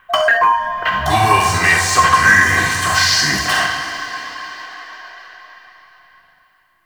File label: Interior Orb Station Announcement; Warframe; Tau; Orbital Station